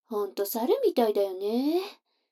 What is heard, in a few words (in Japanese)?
女の子 台詞, ASMR